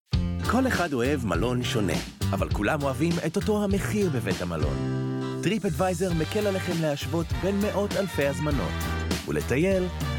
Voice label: Hebrew Voice; UK

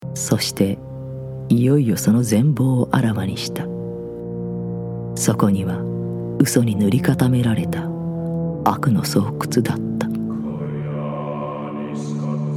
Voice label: Japanese

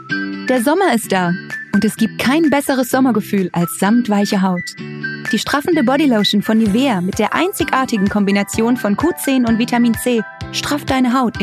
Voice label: German

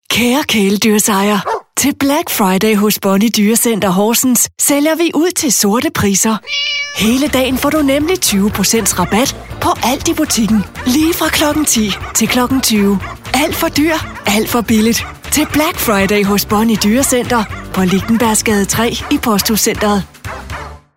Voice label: Danish

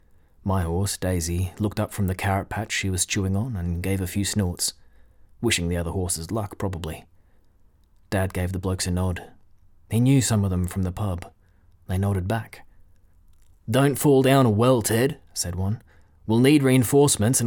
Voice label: Audiobook, regional accents

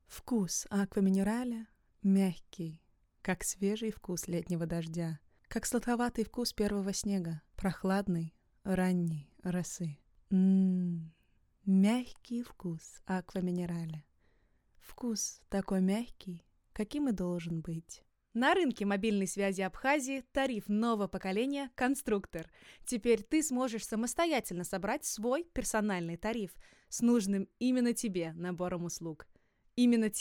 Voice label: Russian

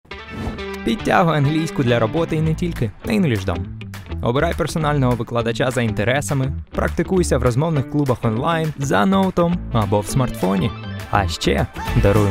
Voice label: Ukranian

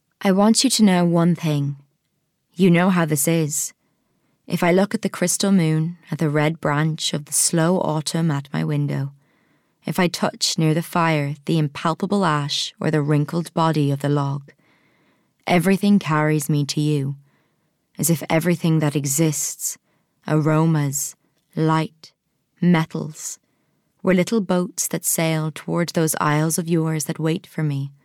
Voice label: Narration

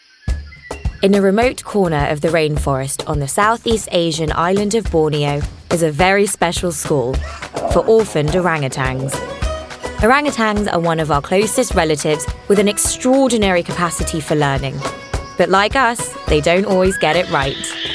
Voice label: Narration

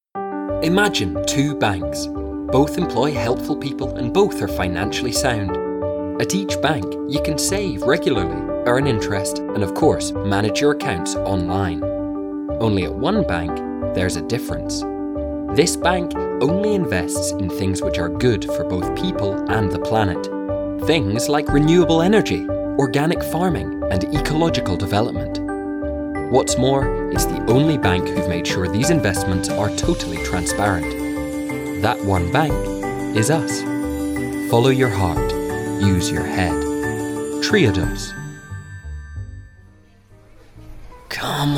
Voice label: Commercial Home Studio; 20s-30s. Male. Scottish.

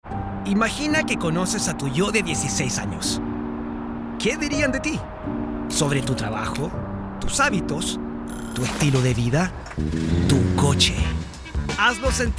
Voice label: Spanish, Spanish-Latin (Chile), Male; Home Studio, 20s-40s

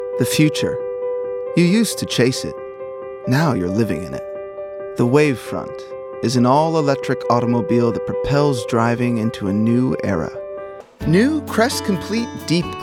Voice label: COMMERCIAL

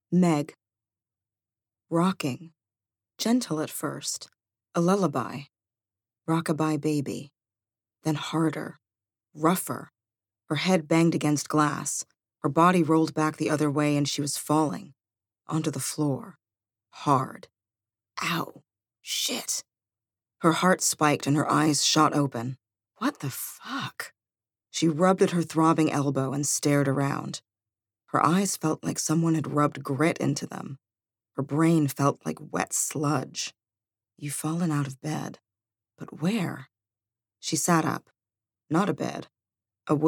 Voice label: audiobook narrative

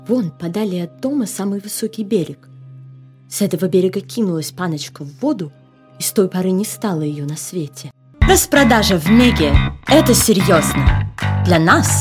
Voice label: Russian

